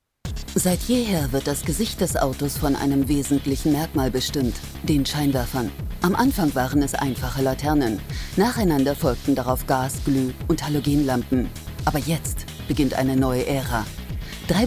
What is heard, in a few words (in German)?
kommentar